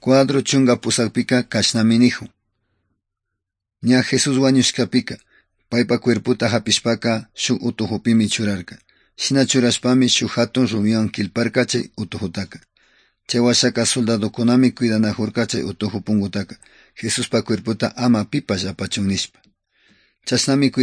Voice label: good news quichua otovalo

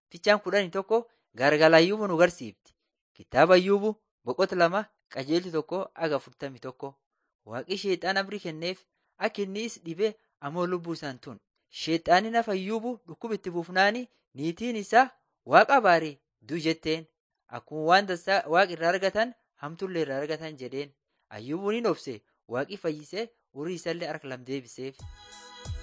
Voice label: borana sakuye

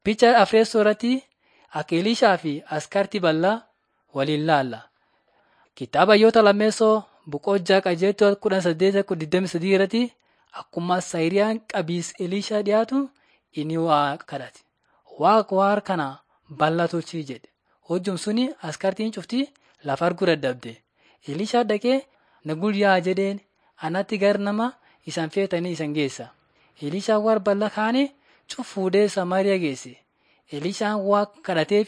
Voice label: borana sakuye; laali chaqasi jiradin 5 jilalacha waaqat; on trial for god

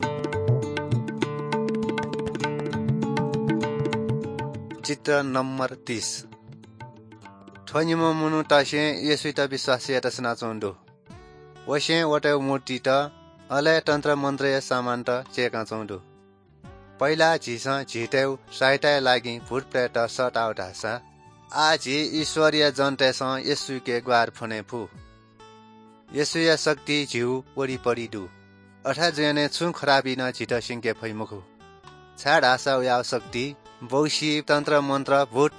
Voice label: good news newari balami

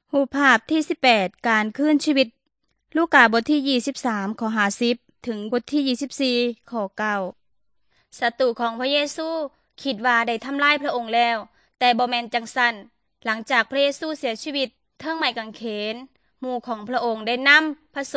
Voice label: good news thai northeastern

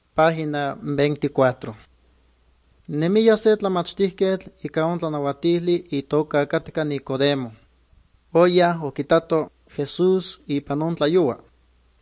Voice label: good news, nahuatl guerrero chilapa

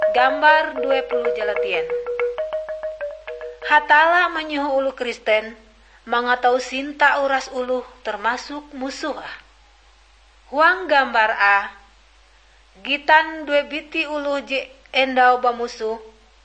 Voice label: kabar bahalap good news ngaju, drama

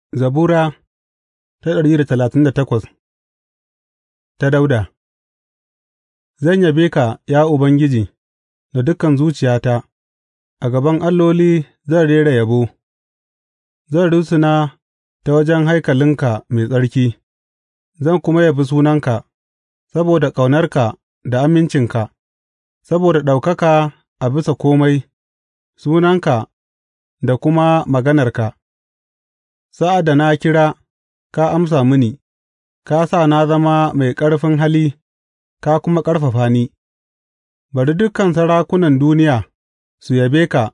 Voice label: hausa bible drama, psalms; hausa bsn nt drama

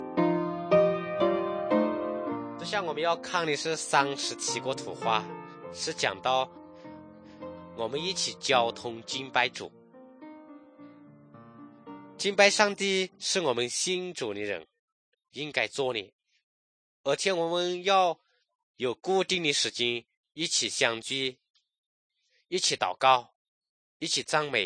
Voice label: good news shan, drama

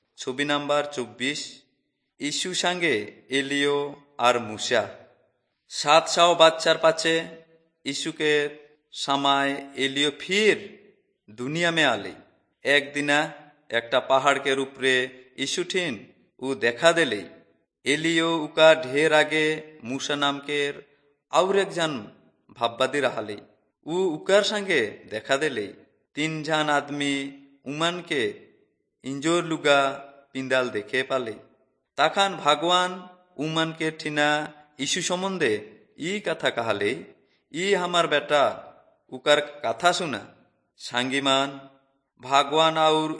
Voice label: servants of god urao, kurukh drama; bible

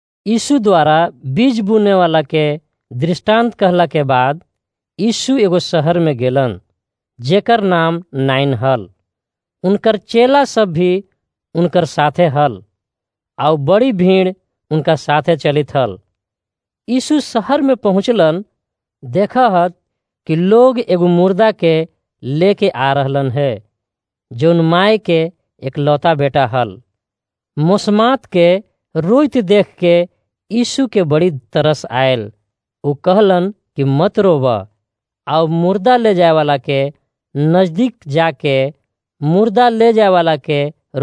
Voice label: jesus heals widows son, magahi; the one story

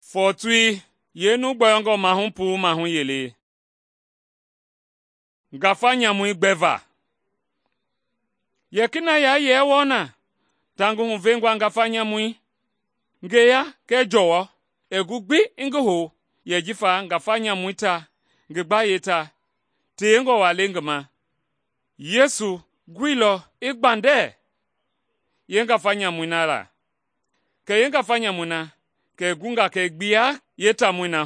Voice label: mende bsl nt drama; mende kpa; good news; bible

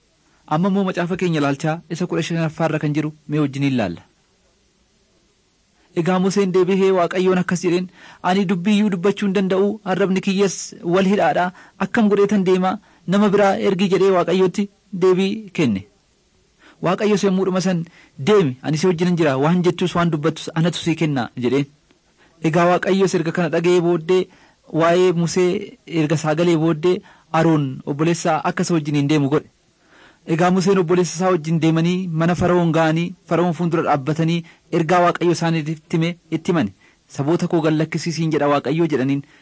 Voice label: oromo wellega; mighty men of god

